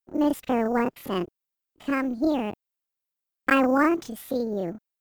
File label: squeaky